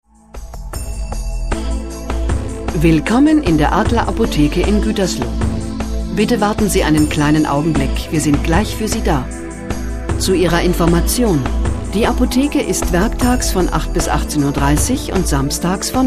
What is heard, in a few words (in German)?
Ansage vor Melden; adler apotheke